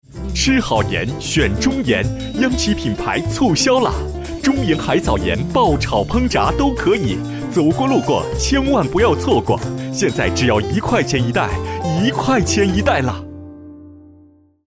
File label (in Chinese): 大气广告宣传